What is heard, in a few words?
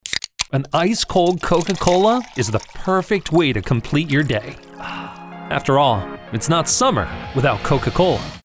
commercial, voice-over for commercials; coca-cola